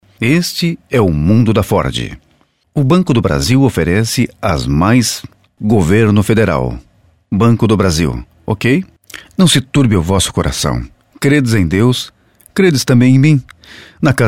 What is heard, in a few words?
locutor brasil brazilian voiceovers; locutor de Brasil